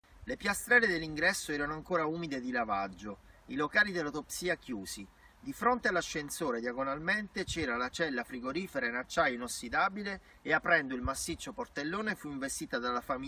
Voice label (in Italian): Esterna iPhone Piano Americano; nerdvana